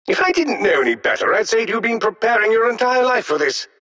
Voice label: announcer victory; dlc defensegrid